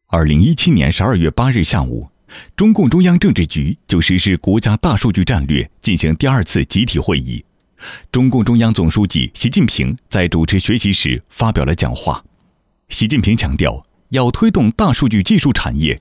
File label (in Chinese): 新闻